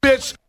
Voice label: "bitch", expletives

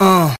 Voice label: "uh", male

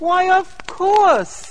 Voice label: male; expletives

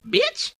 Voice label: "bitch", expletives

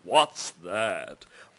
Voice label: what's that, british bloke; questions

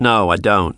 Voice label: no i don't, redneck; Voice; expletives

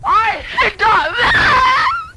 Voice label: i hagda lah, Voice gibbrish

